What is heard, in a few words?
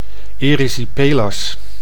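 "erysipelas", Dutch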